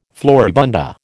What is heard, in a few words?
"floribunda", American